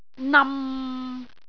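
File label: "nam6", Cantonese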